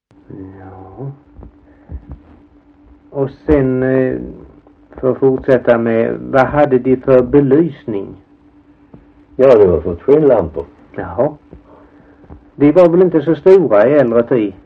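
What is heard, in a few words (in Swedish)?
Alfshög Halland, dialekter; Folkminnen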